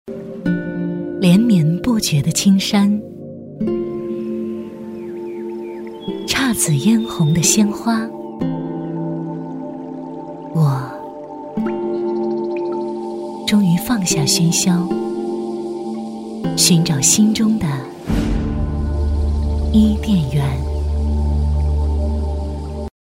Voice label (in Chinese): 女 品质广告 景区 唯美; 旅游风光景区配音; 印盒景区